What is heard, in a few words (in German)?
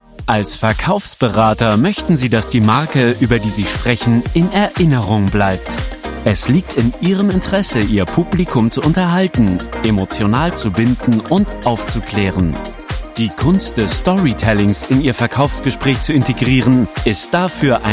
E-Learning für Mitarbeiter